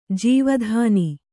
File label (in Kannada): "ಜೀವಧನ", Kannada